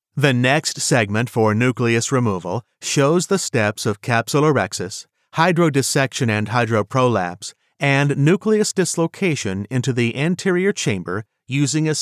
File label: eLearning nucleus removal, male medical voiceover